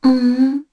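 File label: Vox Deny, Korean; Kara; kingsraid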